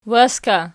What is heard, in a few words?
waska nom, archi